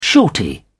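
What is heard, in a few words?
"shorty", British English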